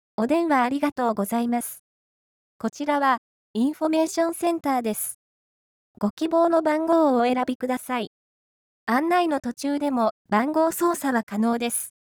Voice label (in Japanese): 店員イメージ; 波形接続型音声合成方式による女性の声２; いらっしゃいませ。本日もご来店、誠にありがとうございます。; どうぞ、ごゆっくりお買い物をお楽しみ下さい。; 近年の音声合成ソフト